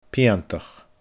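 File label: "piantach", Scottish Gaelic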